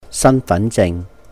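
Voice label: "Sàn Fán Jing", Cantonese; Identity Card; 身份證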